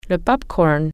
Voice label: "popcorn", french